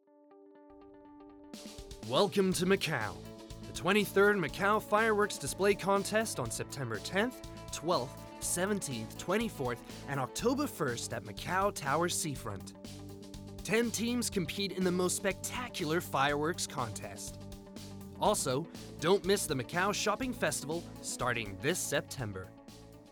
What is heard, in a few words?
Young American Commercial